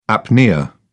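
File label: "apnoea", British English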